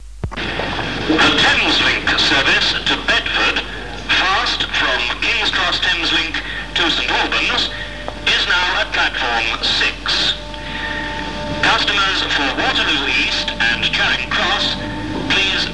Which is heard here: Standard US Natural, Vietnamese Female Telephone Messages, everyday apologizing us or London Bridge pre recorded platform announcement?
London Bridge pre recorded platform announcement